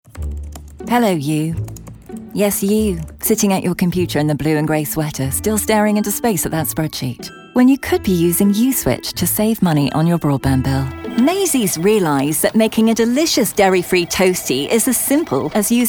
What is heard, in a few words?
british commercial